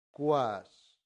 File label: "quas", latin; santillana